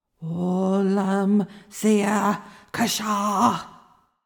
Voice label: incantation feminine reverb summon

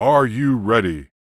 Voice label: voice of god game phrase "are you ready" dry; fvtt sonniss gdc audio

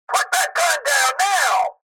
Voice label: military radio voice put that gun down now; fvtt sonniss gdc audio

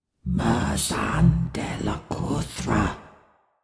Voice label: incantation feminine demonic help

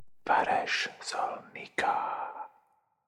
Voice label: incantations whispers masc forward standard; psfx

